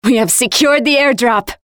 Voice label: Airdrop claimed, Voiceovers; Project Argo

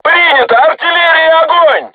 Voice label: voice message art shoot, crew tanks russian

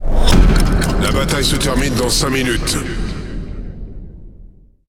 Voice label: Speech Timer, FR; World Of Warships

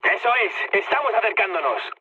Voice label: near waypoint, mood med, speech; War Thunder

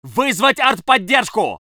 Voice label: voice sov infantry

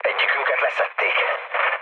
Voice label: aircraft destroyed, speech hun; War Thunder

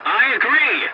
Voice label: voice message yes, english; War Thunder

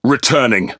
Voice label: Pirate Speech Pilots Torpedo Land; World Of Warships

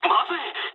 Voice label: jp trick, speech jp; War Thunder

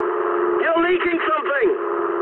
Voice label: eng tank damaged mood high, speech eng

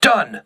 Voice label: voice message enemy destroyed, crew ships english

